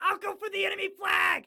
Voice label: Self Attack Flag, Excited Guy